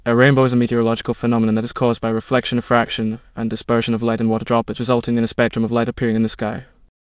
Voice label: speaker, en GB; piper voices; vctk